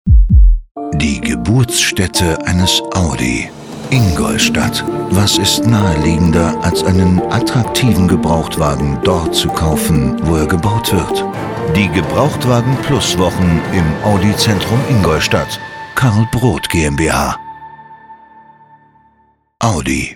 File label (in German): Radiospot Gebrauchtwagenwochen; Audi Zentrum Ingolstadt Karl Brod